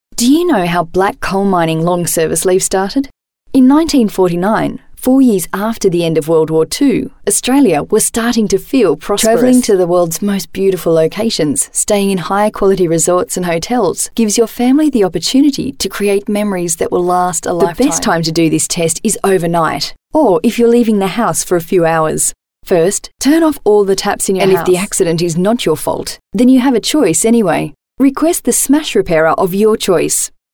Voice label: Natural